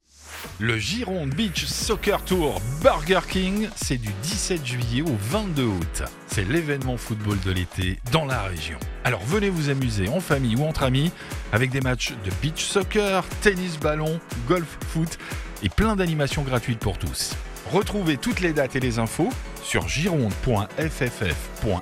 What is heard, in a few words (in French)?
REPONDEUR